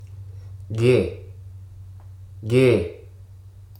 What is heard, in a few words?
"garment", hruso